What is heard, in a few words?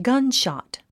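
"gunshot", English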